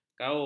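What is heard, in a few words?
"kaok", Malay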